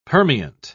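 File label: "permeant", British English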